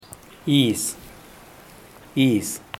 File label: "iis", zapoteco teotitlan